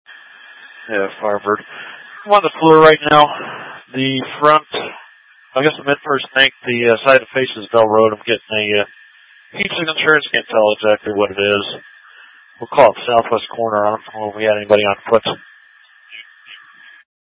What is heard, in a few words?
Mutual Aid-Intersystem Interop B, scanner